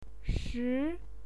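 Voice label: "shi", Mandarin Chinese, second tone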